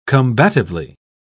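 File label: "combatively", British English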